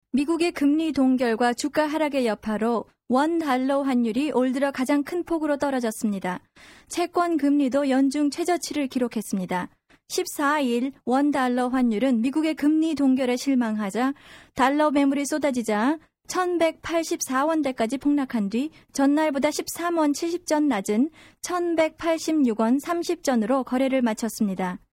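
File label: Korean Female voice over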